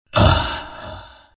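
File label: pain, chinese; dota2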